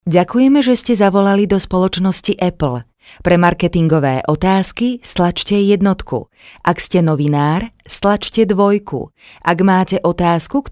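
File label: Slovak, female, interactive voice response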